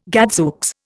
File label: "gadzooks", Indian